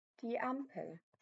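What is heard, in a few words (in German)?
"Ampel", German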